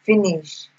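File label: "finish", Nigerian Pidgin, female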